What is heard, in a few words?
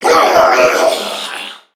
Death Crewmen, en; Warframe; Corpus Crewmen; Crewman B; Dialog Barks Battle